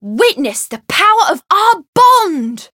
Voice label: variable chant; tiramisu